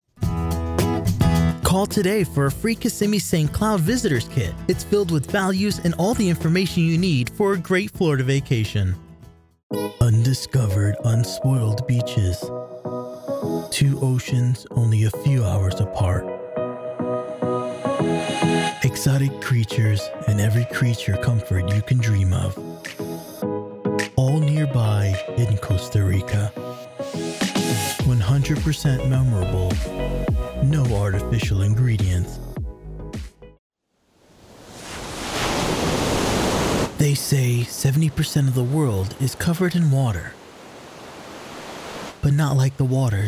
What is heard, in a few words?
Commerical Travel & Entertainment